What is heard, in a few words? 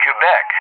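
"Quebec" English, speech; JTAC; Alphabet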